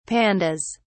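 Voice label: "pandas", British English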